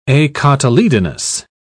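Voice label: "acotyledonous", British English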